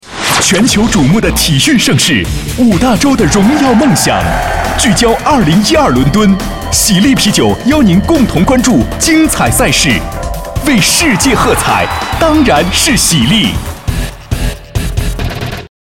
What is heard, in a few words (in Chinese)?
广告 酒类 活力, 男国; 喜力啤酒